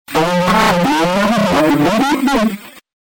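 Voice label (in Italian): "ho il bar", Italian; ho il bar, un oliva? E ride!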